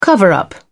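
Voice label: "cover-up", British English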